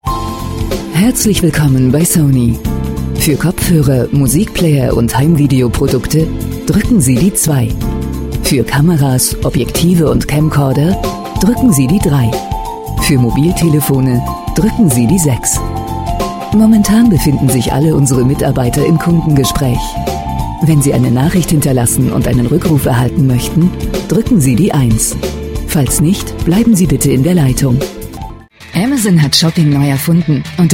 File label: germany voice over female narrator